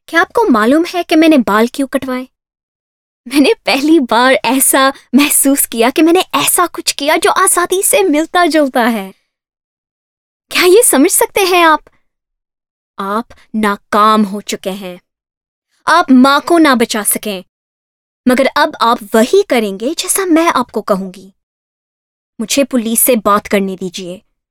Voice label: Urdu Read